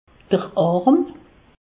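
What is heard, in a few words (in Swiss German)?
"arm", Alsatian; medecine; bras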